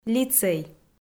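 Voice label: "лицей", Komi, female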